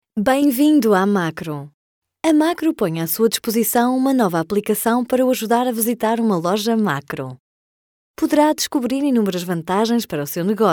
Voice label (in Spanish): narración portugués